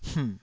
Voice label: "hmh3", Taiwanese syllable